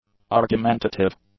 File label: "argumentative", English; adjectives